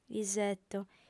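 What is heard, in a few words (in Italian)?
"iseto", lingua sarda, fem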